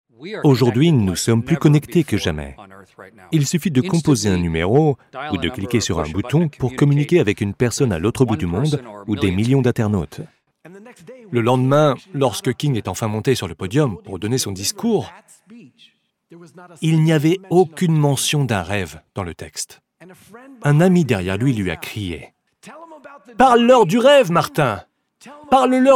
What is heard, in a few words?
french